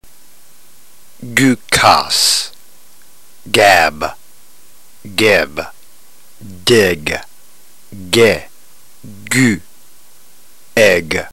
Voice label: drill, ntgreek